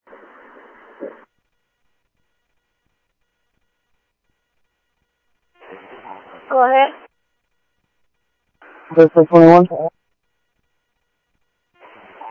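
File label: Light Rail Security Light Rail, scanner audio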